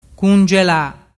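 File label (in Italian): "cungela", Vernazzese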